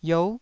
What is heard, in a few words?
"iou9", taiwanese syllables